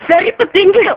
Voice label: Scolding